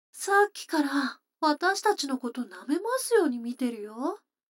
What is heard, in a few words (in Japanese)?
女の子台詞, ASMR